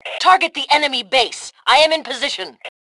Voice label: Target Base, Female; T2 Female 02